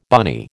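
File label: "bunny", American English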